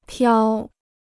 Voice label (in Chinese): "漂", Chinese